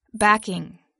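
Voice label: "backing", English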